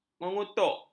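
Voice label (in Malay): "mengutuk", Malay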